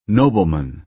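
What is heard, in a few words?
"nobleman", American English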